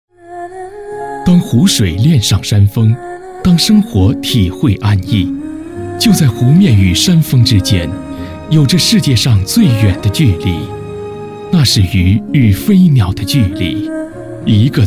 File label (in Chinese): 男 广告 柔和; 凯撒音乐版